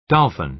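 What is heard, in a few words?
"dolphin", American English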